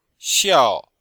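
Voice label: "xiao4", Chinese; Cours de Chinois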